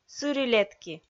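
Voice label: "сыри-летки", Komi, female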